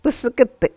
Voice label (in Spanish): sachavaca es